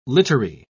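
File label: "littery", British English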